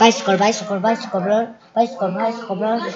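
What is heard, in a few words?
"bicycle", spoken word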